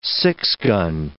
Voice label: "six-gun", English

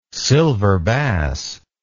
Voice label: "silver bass", English

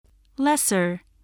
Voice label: "lesser", spelling

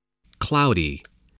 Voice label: "cloudy", voice

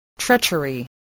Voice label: "treachery", voice

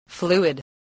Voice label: "fluid", spelling

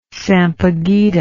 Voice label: "sampaguita", English

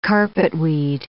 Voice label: "carpetweed", English

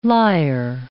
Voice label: "lyre", English

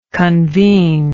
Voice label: "convenor", English

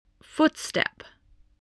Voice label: "footstep", spelling

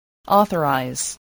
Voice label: "authorize", english

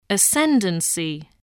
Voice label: "ascendancy", English

